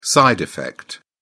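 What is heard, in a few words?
"side effect", British English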